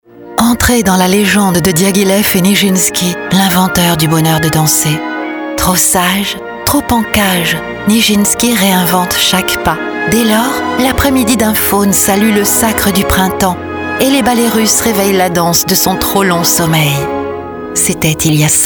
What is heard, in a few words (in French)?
radio pub spot